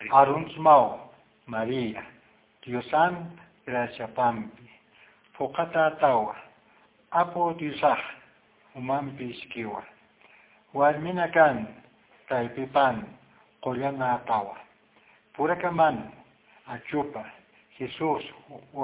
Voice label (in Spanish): ave maria aymara lento; ave maria idiomas